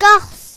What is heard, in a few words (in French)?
"corse", fr; france region; gcompris